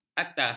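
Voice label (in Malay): "atas", Malay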